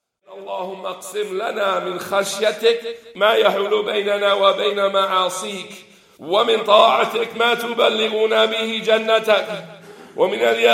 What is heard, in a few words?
DUAA ARABIC only